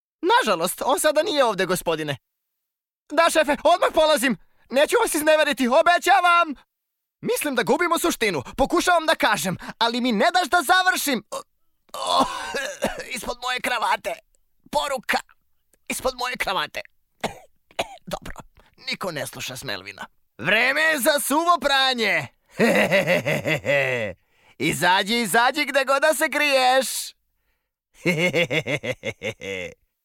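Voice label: serbian male character voice; voice demos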